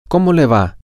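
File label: how is it going, Spanish, male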